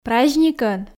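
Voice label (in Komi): "праздникӧн", Komi, female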